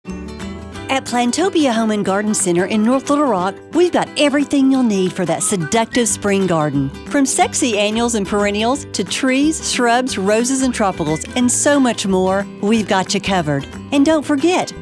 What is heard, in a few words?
spring commercial